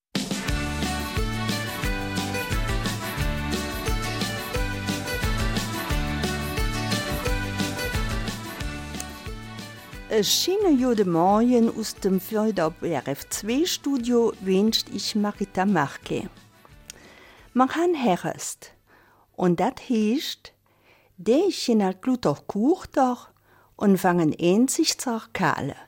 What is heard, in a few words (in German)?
Eifeler Mundart; Dorfchronik Recht - aktualisierte Neuauflage